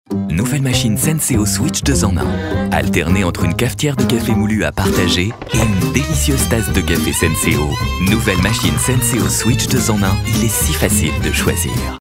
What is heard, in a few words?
warm, French voice over; Senseo